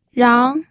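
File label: "rang", Mandarin Chinese, second tone; pinyin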